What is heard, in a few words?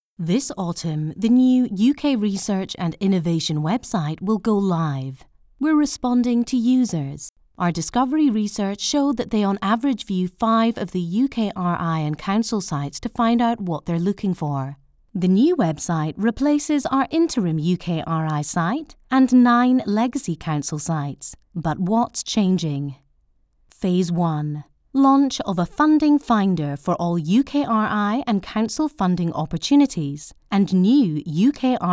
Soft Scottish; UKRI